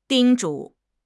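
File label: "叮嘱", Chinese; HSK6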